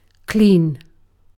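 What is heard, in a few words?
"clean", British English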